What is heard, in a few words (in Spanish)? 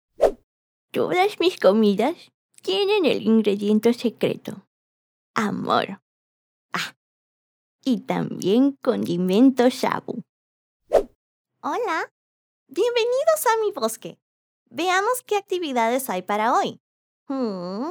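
personajes acentos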